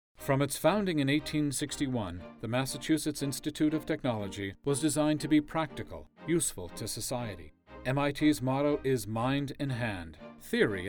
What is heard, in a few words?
narration